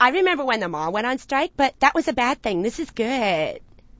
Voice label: mall on strike bad, Commentators

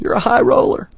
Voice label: highroller, Commentators

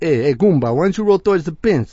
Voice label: eh roll towards pins, commentators

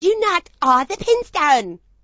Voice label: you knocked all down, Commentators

